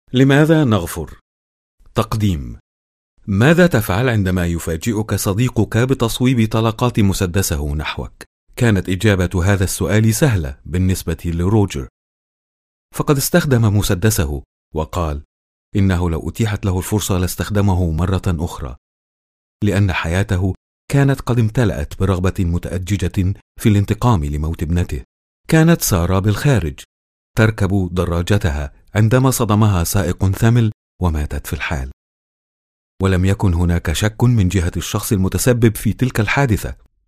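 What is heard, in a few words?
Arabic, male, narration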